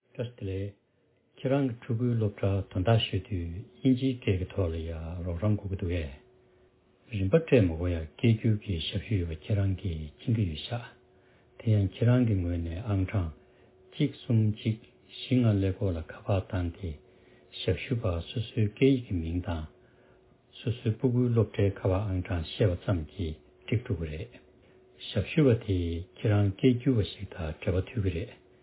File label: tibetan, audio message